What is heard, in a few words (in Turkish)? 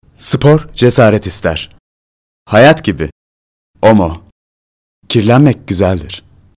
reklam